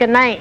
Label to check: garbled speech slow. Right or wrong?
Wrong